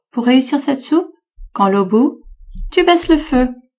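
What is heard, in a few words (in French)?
pour réussir cette soupe quand l'eau bout tu baisses le feu, female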